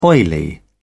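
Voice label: "oily", British English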